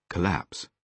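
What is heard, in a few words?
"collapse", British English pronunciation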